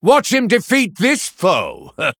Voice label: Male Human Hero Power; Blackmoore; Thrall